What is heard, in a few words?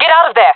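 request fallback, female voice; player vo jungle fem; Counter Strike Model Pack; CS:GO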